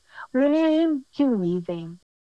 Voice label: Voice Acting es